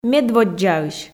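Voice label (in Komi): "медводдзаысь", Komi, female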